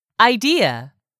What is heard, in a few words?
"IDEA", english; 3000 words